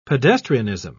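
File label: "pedestrianism", English, British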